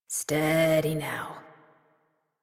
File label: Horse Calm Adult; Warframe; Duviri